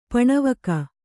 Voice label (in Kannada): "ಪಣವಕ", kannada